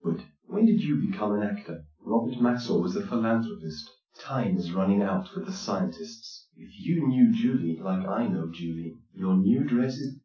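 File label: male speech; SiSEC10; underdetermined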